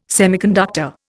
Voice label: "semiconductor", Indian